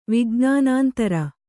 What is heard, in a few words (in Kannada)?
"ವಿಜ್ಞಾನಾಂತರ", Kannada